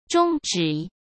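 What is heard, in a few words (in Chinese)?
"中止", Mandarin Chinese; hsk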